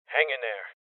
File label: Voices Heal Male Young; rebel cops 2019 gamerip